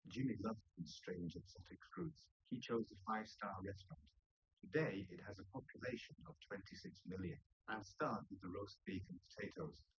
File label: male4 liverec 250ms 1m sim; SiSEC08; SiSEC underdetermined